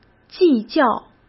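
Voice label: "计较", Mandarin Chinese; chinese-flashcards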